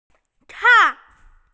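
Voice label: "chang" Chinese, female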